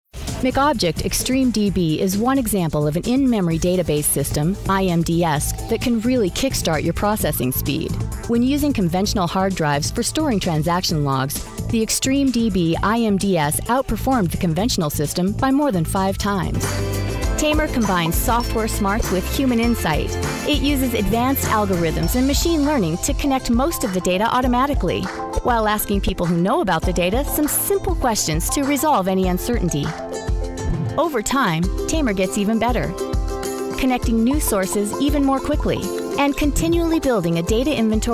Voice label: Narration Female Technical